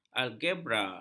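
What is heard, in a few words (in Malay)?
"algebra", Malay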